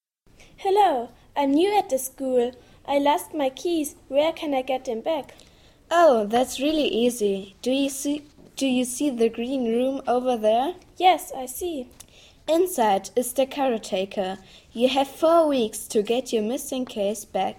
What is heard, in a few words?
dialog; englisch